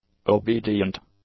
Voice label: "obedient", english; adjectives